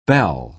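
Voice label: "bell", American English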